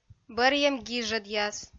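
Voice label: "бӧрйӧм гижӧдъяс", Komi, female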